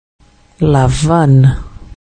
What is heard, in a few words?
cor lavan, Hebraico